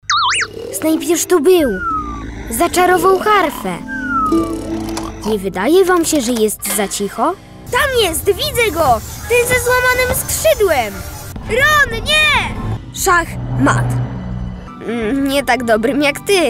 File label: voice dubbing